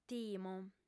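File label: "timo", lingua sarda, female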